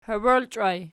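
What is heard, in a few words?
"hoiboilchaj" nominative, Archi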